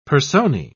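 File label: "personae", British English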